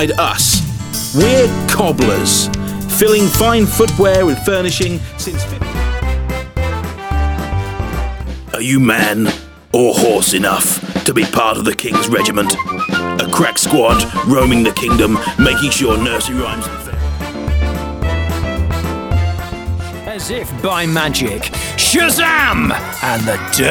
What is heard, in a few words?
advert; TLC